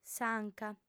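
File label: "s'anca", female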